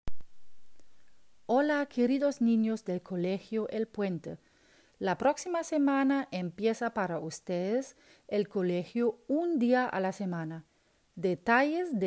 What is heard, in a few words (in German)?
Spanisch